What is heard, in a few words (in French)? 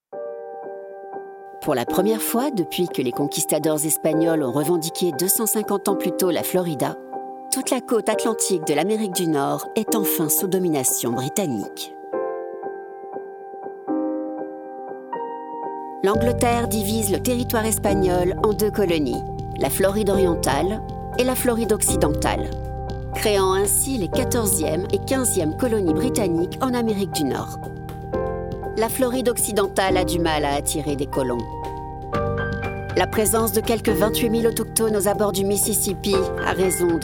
Narration Historique